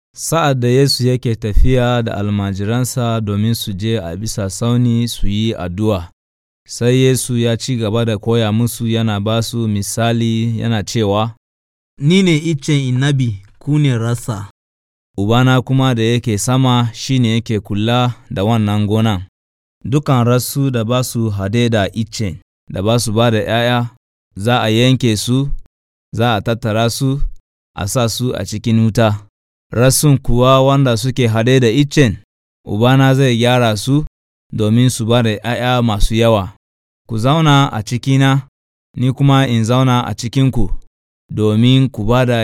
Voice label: Hausa Nigeria; Ku saya cikin kauna; Remaining in Love

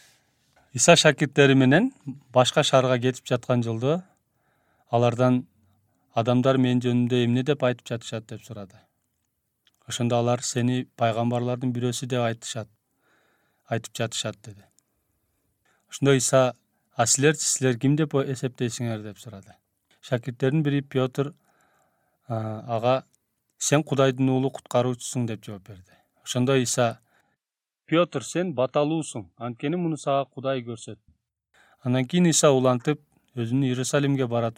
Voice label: Kyrgyz; Who Do People Say I Am

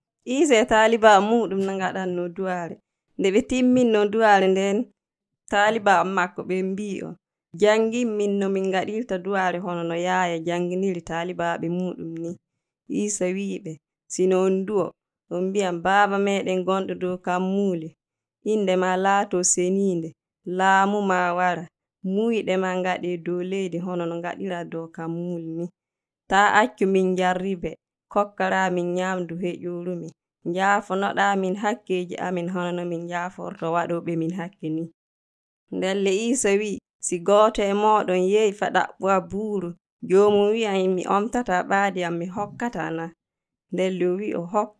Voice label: Fulfulde Northeastern Burkina Faso; Iisa Jangini Duaau; Jesus Teaches on Prayer

